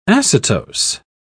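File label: "acetose", British English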